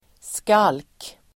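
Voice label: "skalk", Swedish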